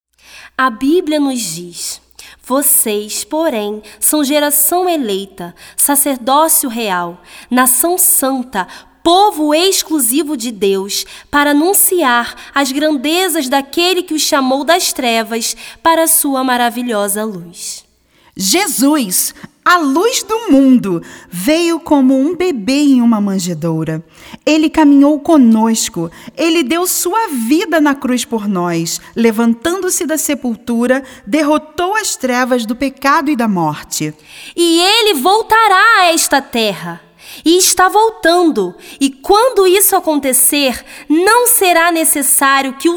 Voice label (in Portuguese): Narracao